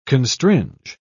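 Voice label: "constringe", English, British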